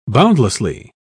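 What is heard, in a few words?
"boundlessly", British English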